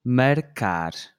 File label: "marcar", Portuguese